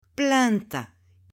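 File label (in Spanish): "PLANTA", Spanish, female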